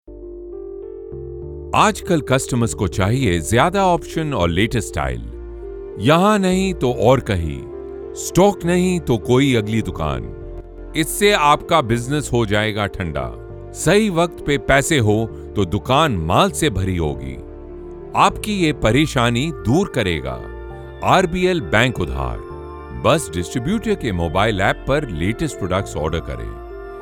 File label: explainer Hindi